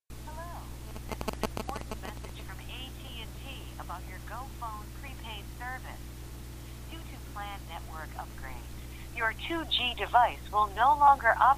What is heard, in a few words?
att 2g autocall; att 2g sunset